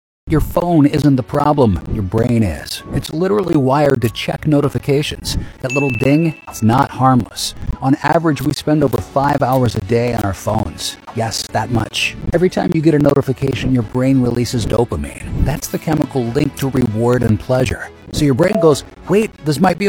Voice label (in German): Imagefilme Breites Publikum; NATIVE PROFISPRECHER